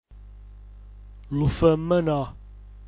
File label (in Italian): lufmno, dialetto giulianova